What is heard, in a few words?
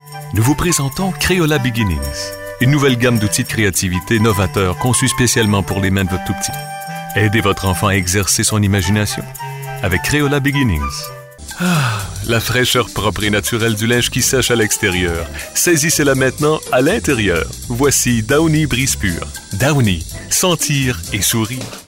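French Canadian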